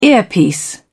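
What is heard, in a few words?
"earpiece", British English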